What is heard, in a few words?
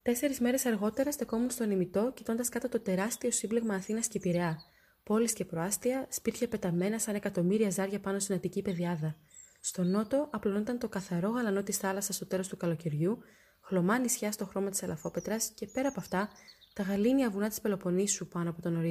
greek voice reel